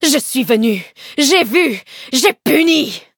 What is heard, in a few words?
victory, fr; cookierun